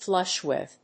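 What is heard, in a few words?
"Flush with", English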